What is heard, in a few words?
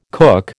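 "cook", American English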